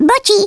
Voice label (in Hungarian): "oops", Hungarian; Worms Armageddon